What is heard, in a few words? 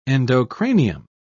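"endocranium", British English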